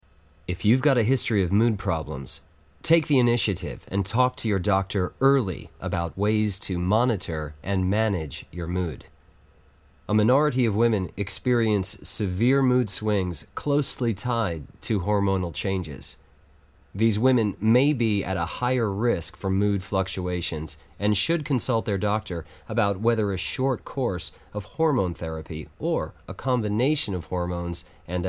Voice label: narration

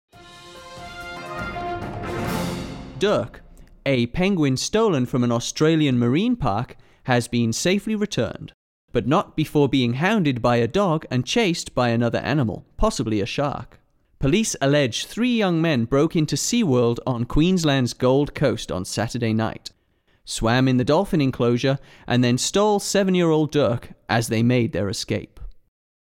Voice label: news

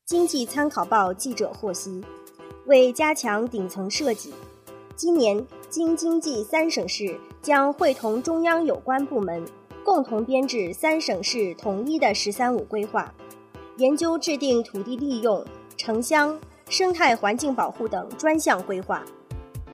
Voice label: news

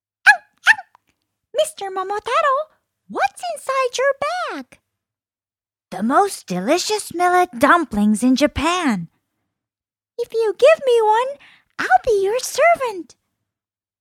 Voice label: character

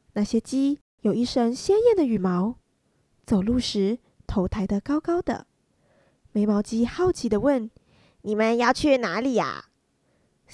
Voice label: story

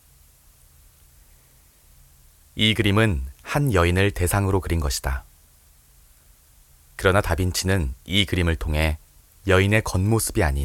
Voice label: edu

